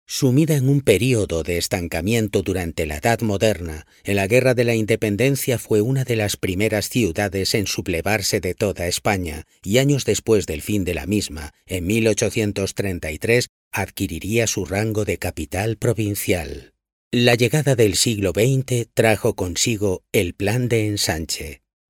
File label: locutor spanish voiceover